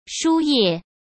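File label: "输液", Mandarin Chinese; hsk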